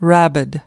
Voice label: "rabid", us